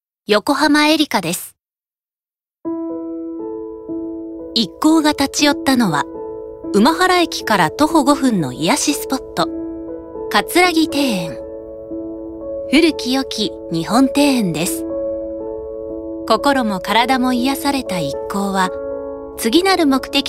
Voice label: ノーマル

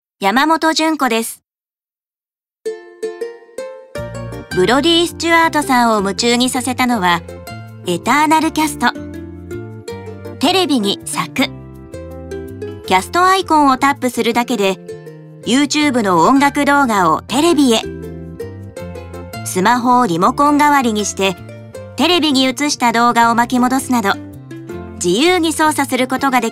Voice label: ナチュラル

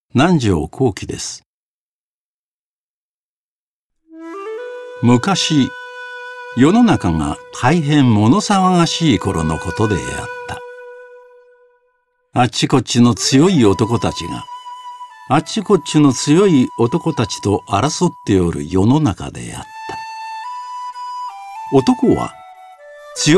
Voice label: 朗読

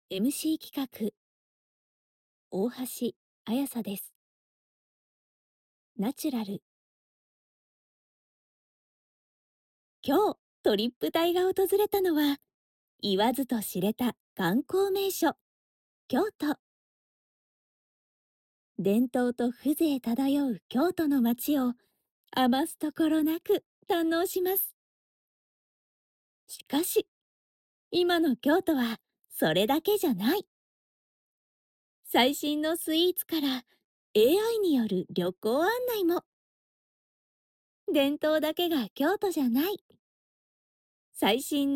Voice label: ナチュラル

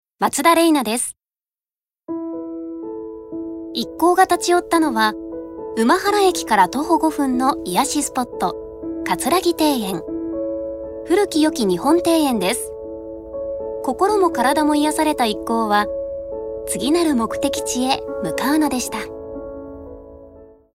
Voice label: ノーマル

